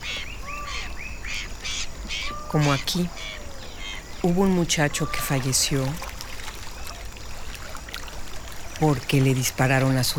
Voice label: Voiceover; Voiceover Film "Moretones" Regie: G. Seidel & D. Ulacia